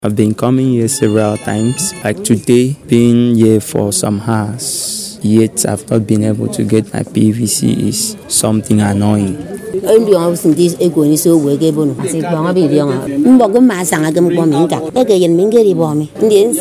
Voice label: VOX POP PVC COMPLAINTS